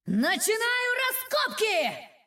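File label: Russian; 守望先锋2; 终极技能; 主动/敌方释放语音; 俄语; Начинаю раскопки!; 发掘开始！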